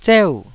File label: "zeu4", Taiwanese